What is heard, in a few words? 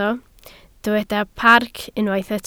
"parc", Welsh